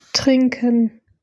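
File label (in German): "trinken", German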